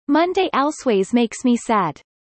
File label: fast